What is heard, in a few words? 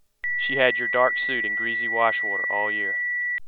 male 2k; ORIGINAL SOUND; IIR and FIR Filters Laboratory